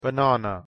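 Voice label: "banana" pronunciation English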